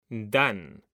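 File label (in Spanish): "dan", Spanish; mekanta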